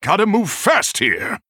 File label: Male Human Time